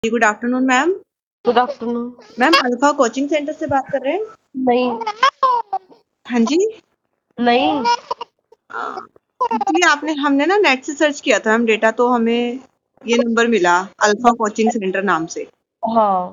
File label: call recording